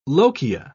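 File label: "lochia", English, British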